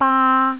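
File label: "baa1", Cantonese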